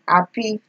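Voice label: "happy", Nigerian Pidgin, female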